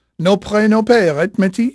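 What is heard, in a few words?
French male, voice; Pirates Of The Caribbean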